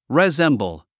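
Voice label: "resemble", English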